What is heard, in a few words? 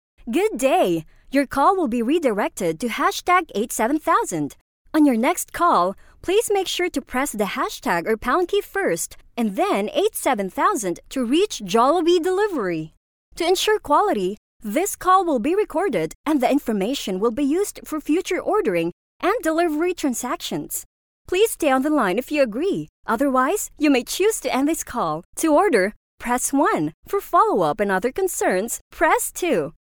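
english interactive voice response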